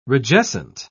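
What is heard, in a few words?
"rigescent", British English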